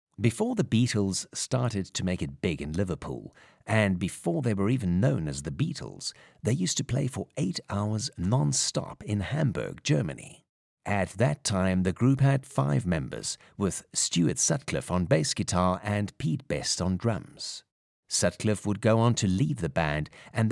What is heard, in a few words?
ENGLISH conversational